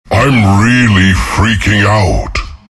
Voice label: freakout; Treant